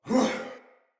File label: Attack, Soldier Male; Sinecure Soldier Male B